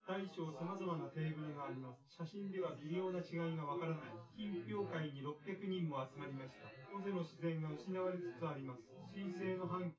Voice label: male, synth conv, 250ms, 1m; SiSEC10; underdetermined